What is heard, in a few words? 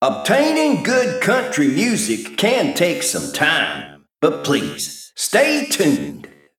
Male Mech Flavor